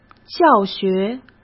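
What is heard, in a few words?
"教学", Mandarin Chinese; chinese flashcards; hsk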